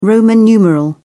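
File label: "roman numeral", English, British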